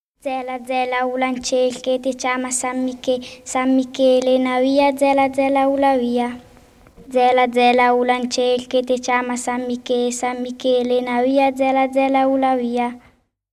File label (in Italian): filastrocca